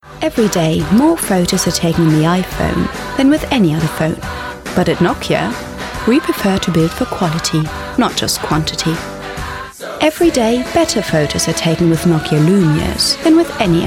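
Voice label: Ger Eng, German English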